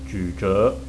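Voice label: "juzhe", Chinese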